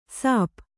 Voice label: "ಶಾಪ", Kannada

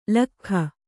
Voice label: "ಲೊಕ್ಕಿಗ", Kannada

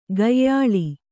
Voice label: "ಕೊಯ್ಯಾಳ್", Kannada

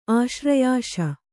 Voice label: "ಆಶ್ರಯಾಶ", Kannada

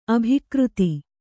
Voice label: "ಅಭಿಕರ್ತೆ", kannada

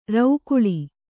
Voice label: "ರೌಕುಳಿ", Kannada

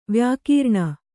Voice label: "ವ್ಯಾಕೀರ್ಣ", Kannada

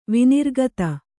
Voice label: "ವಿನಿರ್ಗತ", Kannada

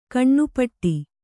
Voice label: "ಘನಪಾಠ", Kannada

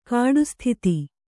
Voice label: "ಕಾಡುಸ್ಥಿತಿ", Kannada

